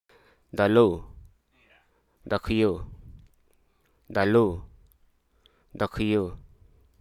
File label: "create", hruso